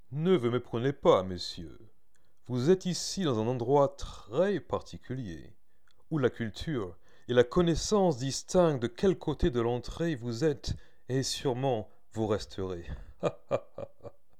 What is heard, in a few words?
distinguished